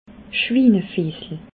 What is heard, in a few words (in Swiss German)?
"schwinefiessel", alsace